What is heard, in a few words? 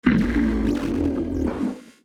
Automaton Alert Line; NPC; Heist Robot Spotted